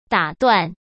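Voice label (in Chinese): "打断", Mandarin Chinese; hsk; chinese-flashcards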